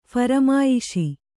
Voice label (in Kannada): "ಫರಮಾಯಿಶಿ", Kannada; pharamāyiśi; noun; = ಫರಮಾಯಿಷಿ2.